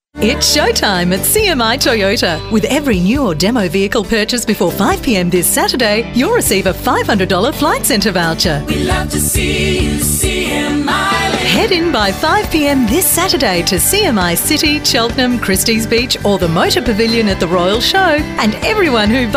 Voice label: up tempo retail